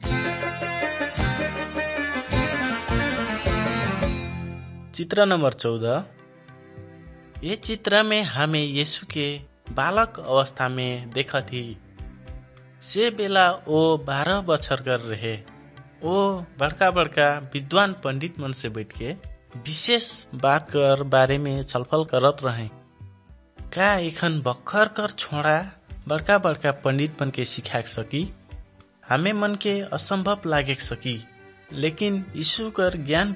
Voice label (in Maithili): good news maithili, nt drama; wycliffe bible translators inc; kisan